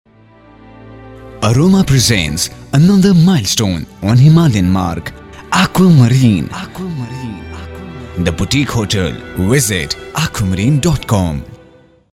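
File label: English Classic, male voice; The Aroma; Aquamarine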